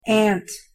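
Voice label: "aunt", English pronunciation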